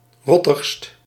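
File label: "rottigst", Dutch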